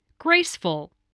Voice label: "graceful", spelling